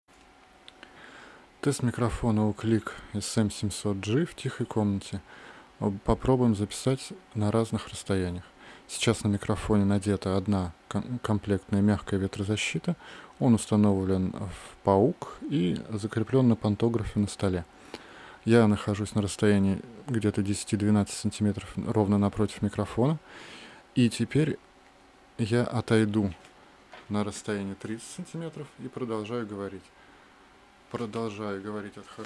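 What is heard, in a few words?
oklick sm 700g different distances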